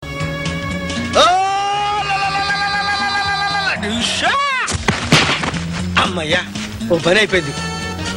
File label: telugu comedy